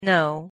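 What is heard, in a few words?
"know", English pronunciation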